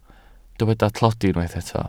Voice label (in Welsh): "tlodi", Welsh